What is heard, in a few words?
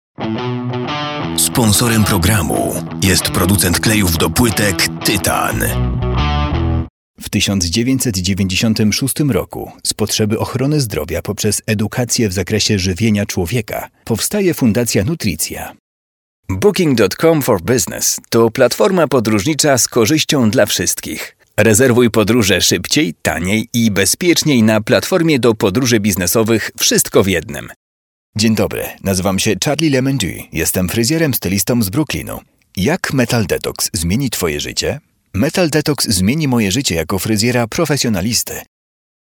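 Polish, male, commercial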